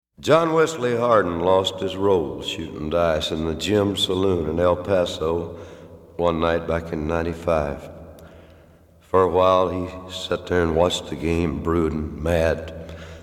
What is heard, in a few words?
narration